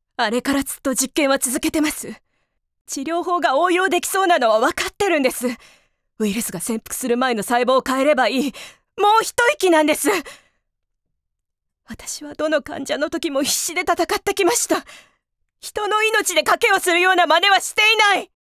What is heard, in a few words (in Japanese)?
若い研究者